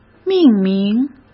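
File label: "命名" Mandarin Chinese; hsk; chinese-flashcards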